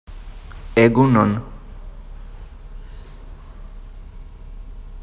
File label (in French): "Egun on" en Basque